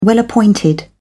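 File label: "well-appointed", British English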